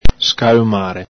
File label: "scalumare", Veneto